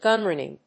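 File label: "gunrunning", English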